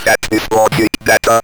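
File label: ROBVOX, robot voice; E-Lab; X Static Goldmine 1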